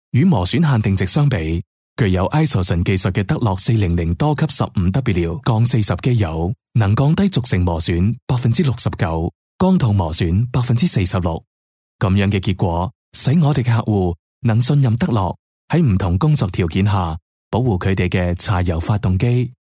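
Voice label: Cantonese, male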